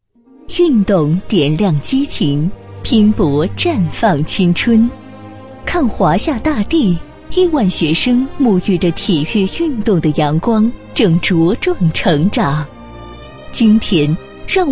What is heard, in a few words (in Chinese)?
女 宣传片 大气悠扬 女曲